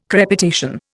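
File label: "crepitation", Indian English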